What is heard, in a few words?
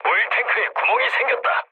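Korean damaged oil mood low, speech; War Thunder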